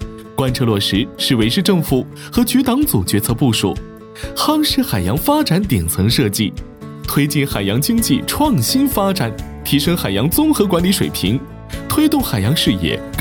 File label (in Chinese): 男 MG动画 海洋; 磁性高端